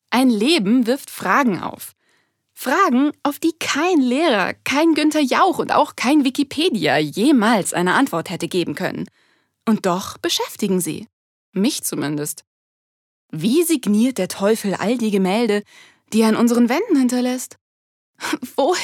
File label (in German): Schauspiel Witzig